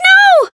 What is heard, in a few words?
Voice damaged